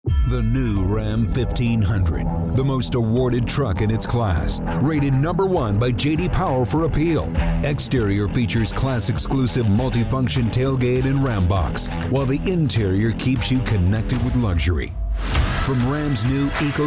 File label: English American automotive